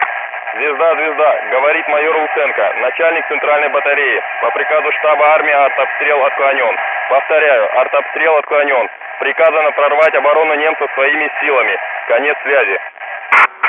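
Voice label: voice soviet infantry; Red Orchestra 41-45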